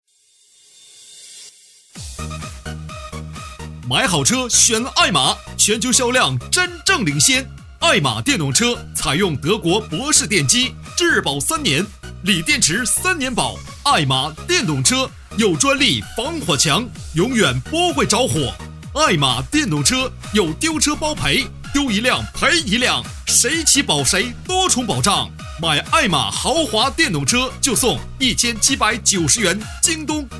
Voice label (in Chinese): 促销